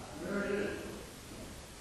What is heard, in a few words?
man calling mary